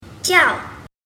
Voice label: "jiao", mandarin